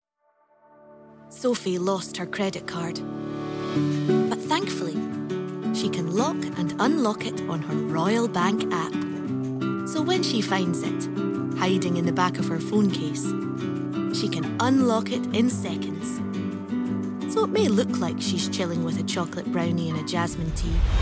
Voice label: Commercial; RBS